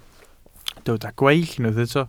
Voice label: "gweill", Welsh

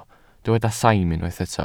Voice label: "saim", Welsh

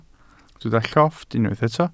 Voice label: "llofft", welsh

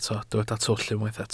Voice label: "twll", Welsh

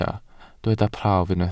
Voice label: "prawf", Welsh

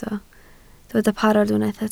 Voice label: "parod", Welsh

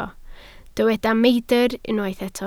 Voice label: "meidr", Welsh

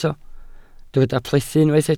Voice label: "plethu", Welsh

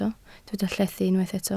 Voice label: "llethu", Welsh

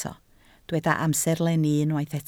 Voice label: "amserlenni", Welsh

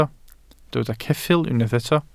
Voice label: "ceffyl", Welsh

